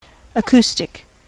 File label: "acoustic", En-us